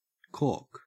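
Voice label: "cork", Australian English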